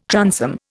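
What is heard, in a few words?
"transom", Indian English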